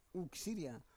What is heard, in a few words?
"uk siria", Roon